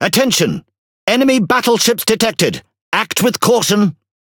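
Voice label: MESSAGE ENEMY SHIPS I SEE, British English; PVE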